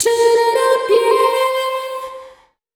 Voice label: VOICE GRL, Drum Bass, 160; eJay